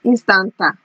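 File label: "instanta", Nigerian Pidgin, female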